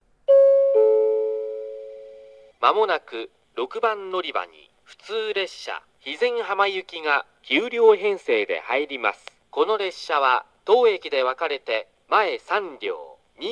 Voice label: tosu local hizen hama; 6番のりば予告放送（普通 肥前浜行き）; 鳥栖; 鳥栖駅の例：普通 肥前浜行き・当駅で前3両肥前浜行きに分割・・・当駅切り離しパターン。この場合「時刻」は分割の案内で放送されます。